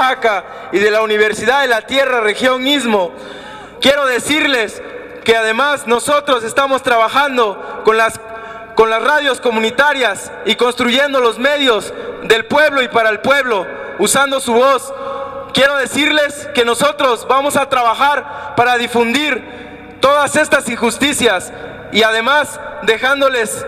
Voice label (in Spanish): saludo zapotecos penal